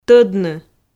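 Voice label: "тӧдны", Komi, female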